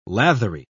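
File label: "lathery", British English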